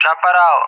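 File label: "Chaparral", English speech; Allied Flight; AirDefence; DCS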